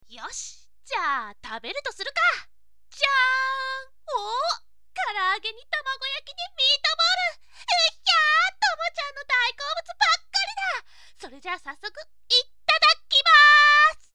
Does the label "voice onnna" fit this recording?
Yes